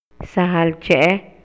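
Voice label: Maya, male; EMOCIONES Y VALORES; INALI; MayaYuc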